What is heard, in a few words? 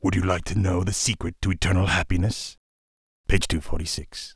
Pissed; Acolyte; War3